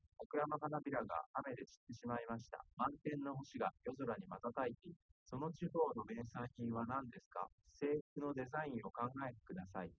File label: male4 liverec 130ms 5cm sim; SiSEC08; SiSEC underdetermined